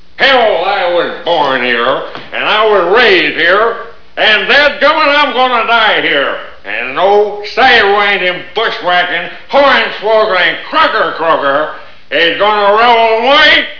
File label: gibbersh